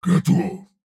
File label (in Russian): "Готов!" Фраза; Турбосвин